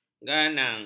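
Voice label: "ganang", Malay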